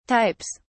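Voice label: "types", English, British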